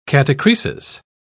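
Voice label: "catachresis", British English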